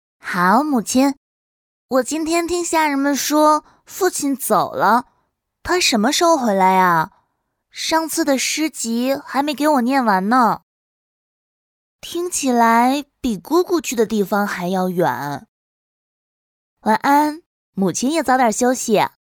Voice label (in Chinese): 乖巧小萝莉